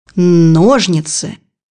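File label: "scissors", Russian; bukvar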